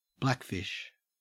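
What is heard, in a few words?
"blackfish", English, Australian accent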